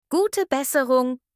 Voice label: get well soon in german